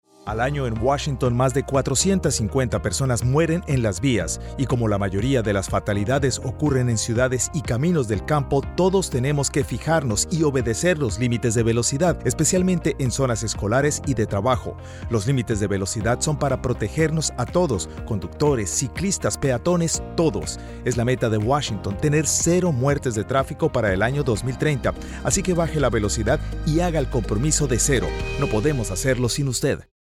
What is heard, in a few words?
Spanish Speeding Radio